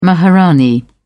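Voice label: "maharani", British English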